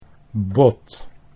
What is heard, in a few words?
"bod", Dutch